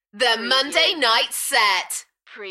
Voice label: UK female The monday night set Enthusiastic; Shows & Events; beanstalk audio